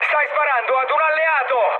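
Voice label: Italian player friendly fire mood med, speech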